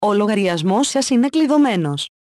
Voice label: Account Locked, el; EFI Audio

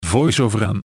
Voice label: VoiceOver On, nl; EFI Audio

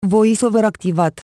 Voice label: VoiceOver On, ro; EFI Audio

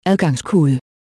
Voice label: Password, da; EFI Audio

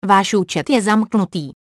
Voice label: Account Locked, cs

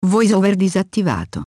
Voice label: VoiceOver Off, it; EFI Audio

